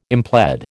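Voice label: "implead", American English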